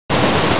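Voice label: "rang4", Mandarin Chinese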